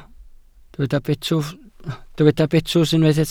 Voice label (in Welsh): "betws", Welsh